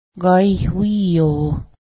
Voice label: good word, Cayuga; Gaihwí:yo